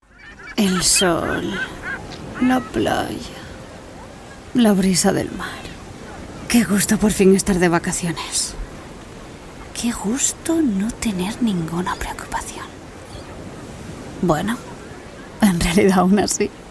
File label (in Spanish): CASTELLANO PUBLICIDAD INTERPRETADA; REGMA